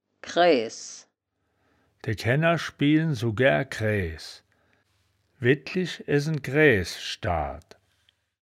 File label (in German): "kraeaes", wittlich; das wittlicher woerterbuch; woerterbuch vertonung